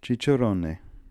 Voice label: "cicerone", pronounciations; Fourth Way; Beelzebub